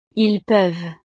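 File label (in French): "ils peuvent", French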